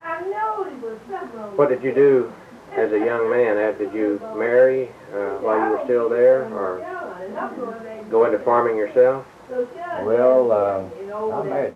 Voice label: Oral history with 80 year old white male Marked Tree Arkansas, american english dialect